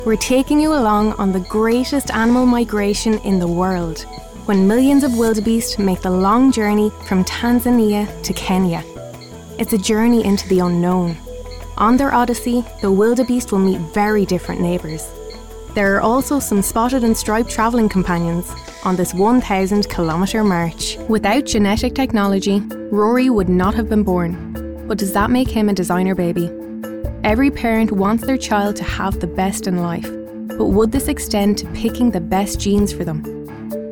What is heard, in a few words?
Narration; 20s-30s; Male; Irish